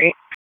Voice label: Police North District Tac 1, p25; wake p25

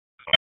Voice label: Police North District Tac 1, p25; Raleigh; wake

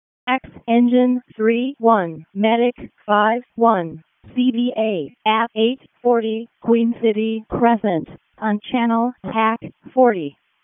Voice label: EMS ALERT; wake; p25

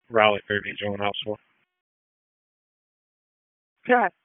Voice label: FIRE OPS, p25; wake

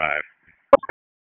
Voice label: Police Spec Ops Tac 4, p25; wake p25

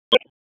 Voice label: Police Tac, p25; Raleigh; Southwest District Tac 1; wake

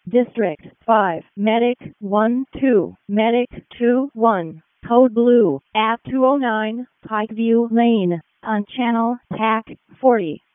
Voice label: WC EMS ALERT, p25; wake

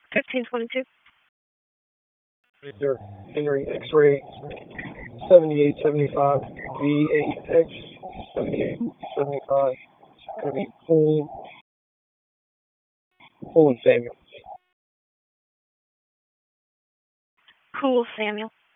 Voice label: Police Southeast District Disp, p25; Raleigh

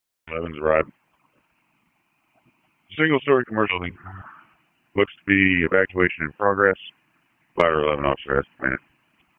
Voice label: FIRE OPS, p25; Wake

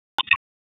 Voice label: FIRE OPS, p25; wake p25

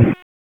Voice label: Police North District Tac 1, p25; wake p25

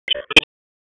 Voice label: Police District Tac, p25; Raleigh; Northeast; wake

